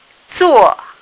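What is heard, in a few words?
"zuo4", Mandarin Chinese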